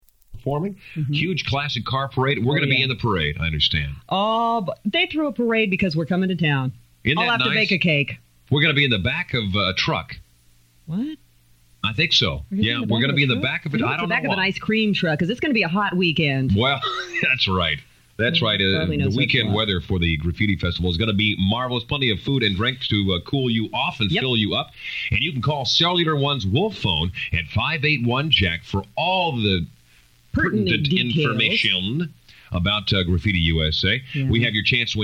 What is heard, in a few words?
promos; KEXX KWG Cellular One